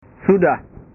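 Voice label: "sudah", Indonesian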